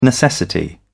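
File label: "necessity", British English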